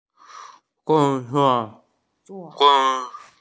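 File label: "work", Mandarin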